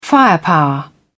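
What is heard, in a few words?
"firepower", British English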